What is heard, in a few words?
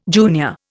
"junior", Indian